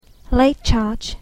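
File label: "late charge", English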